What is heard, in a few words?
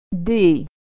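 "d", American English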